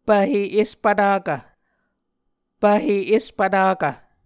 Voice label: "pvhe espvtakv", Muscogee

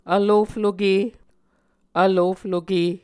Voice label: "vlofloke", Muscogee

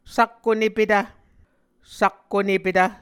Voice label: "sakkonepetv", Muscogee; Mvskoke Words with Repeated Consonants; A Dictionary of Creek/Muskogee; SIL International

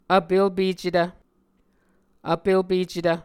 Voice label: "vpelpecetv", muscogee

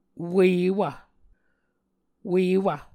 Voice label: "wewv", Muscogee

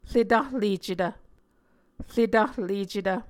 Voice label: "retahlecetv", muscogee

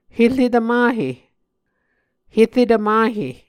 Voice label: "heretv mahe", Muscogee

